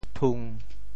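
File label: "thung1", Teochew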